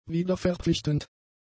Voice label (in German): "wiederverpflichtend", German; adjectives